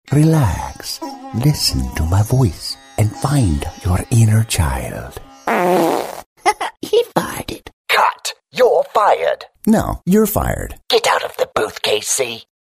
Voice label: CHARACTERS Versatile Accent British Hindi Child Humorous